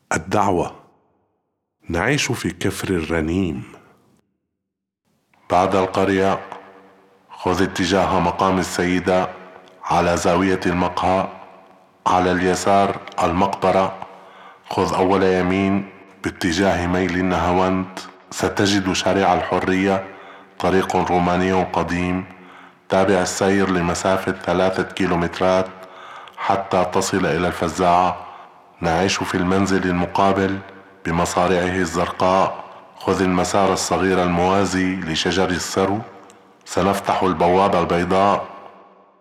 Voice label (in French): arabe; L'invitation